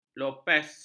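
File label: "lopes", Malay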